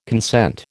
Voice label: "consent", American